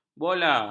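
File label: "bola", Malay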